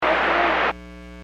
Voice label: Aviation 121.5 Emergency